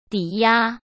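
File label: "抵押", Mandarin Chinese; hsk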